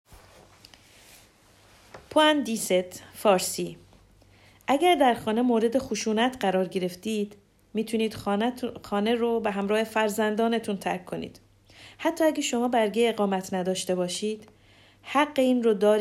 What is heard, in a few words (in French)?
farsi violences